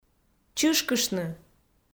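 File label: "чышкыштны", Komi, female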